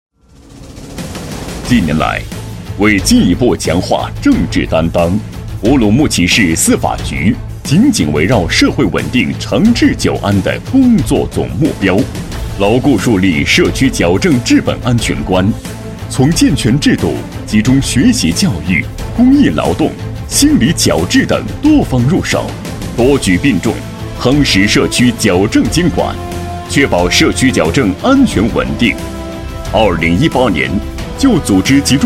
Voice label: 汇报片; 乌鲁木齐市司法局社区矫正工作

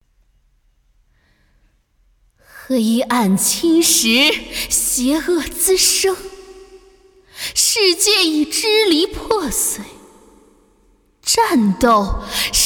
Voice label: 游戏角色火女

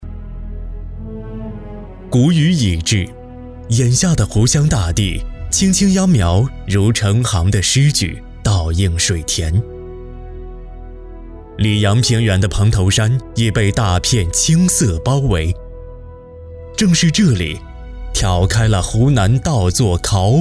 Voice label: 纪录片; 谷雨已至

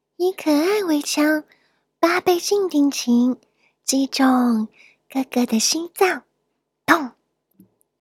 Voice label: 萝莉音

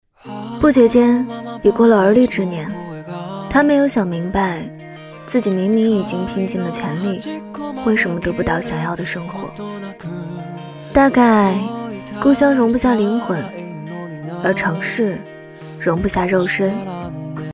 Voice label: 旁白 情感

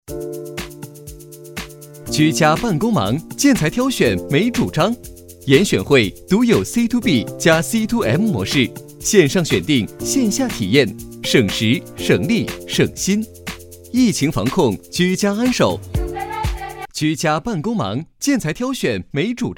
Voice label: 广告; 严选会

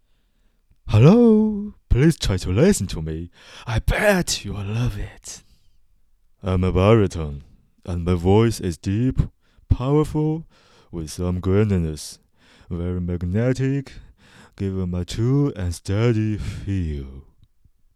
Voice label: 英文 独白

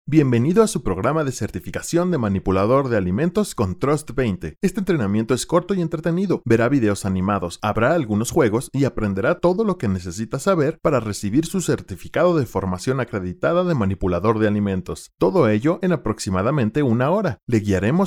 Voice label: 美国中性

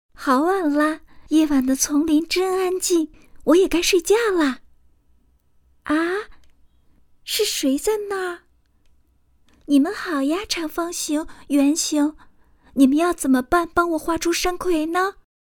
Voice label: 甜美 小女孩

